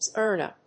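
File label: "Zurna", English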